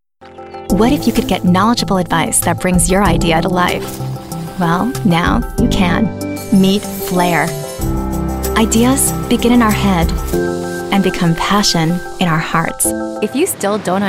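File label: female English US corporate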